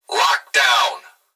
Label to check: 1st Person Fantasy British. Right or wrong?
Wrong